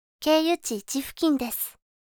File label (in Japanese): 経由地1付近です, カーナビ 案内音声; カーナビ(で使えるかもしれない)案内音声